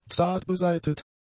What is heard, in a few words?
"zartbesaitet", German; adjectives